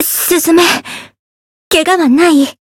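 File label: win barely, Japanese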